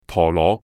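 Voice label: "陀螺", Cantonese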